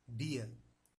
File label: "deer", vowel extend